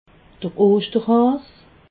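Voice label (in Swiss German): "oster haas", Alsatian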